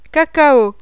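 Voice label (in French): "cacao", French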